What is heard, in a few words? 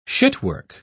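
"shitwork", British English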